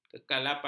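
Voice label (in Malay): "kekalapan", Malay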